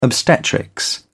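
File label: "obstetrics", British English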